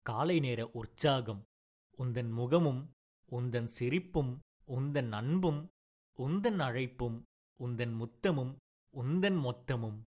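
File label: Tamil male audiobook; voice-over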